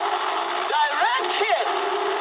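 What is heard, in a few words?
English bomb success mood low; War Thunder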